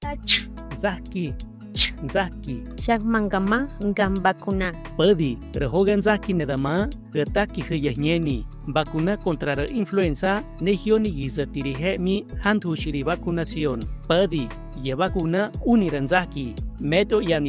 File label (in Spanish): Spot Radio Otomi; mexico; salud inclusiva